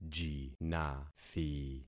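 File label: "jinathi", concatenated syllables; Affixing experiment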